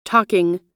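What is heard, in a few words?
"talking", US English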